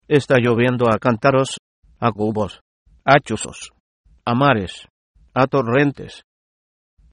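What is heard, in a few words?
rain, Spanish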